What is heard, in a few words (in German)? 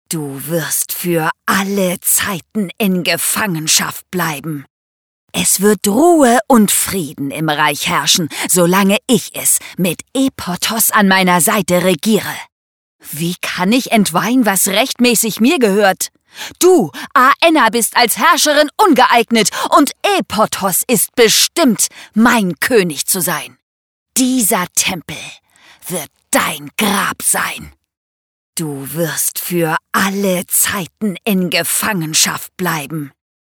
demo böse königin; LOFT VOICES